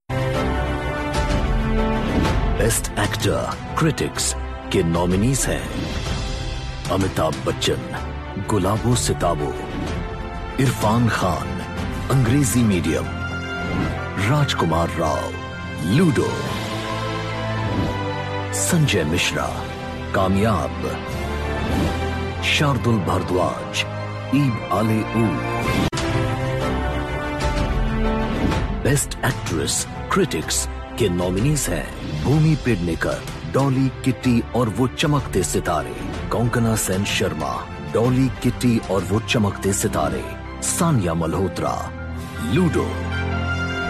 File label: Hindi voiceovers; Filmfare Nominations